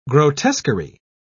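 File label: "grotesquerie", British English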